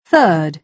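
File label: "third", British English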